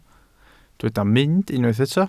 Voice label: "mynd", Welsh

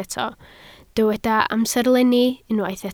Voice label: "amserlenni", Welsh

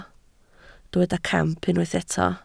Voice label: "camp", Welsh

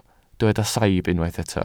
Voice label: "saib", Welsh

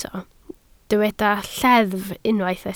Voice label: "lleddf", Welsh

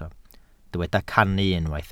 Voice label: "cannu", Welsh

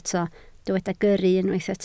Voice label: "gyrru", Welsh

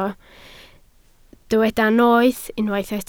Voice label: "noeth", Welsh

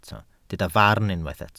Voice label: "farn", welsh

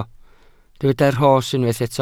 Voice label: "rhos", welsh

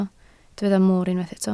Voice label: "moor", Welsh

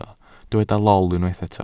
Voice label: "lol", Welsh

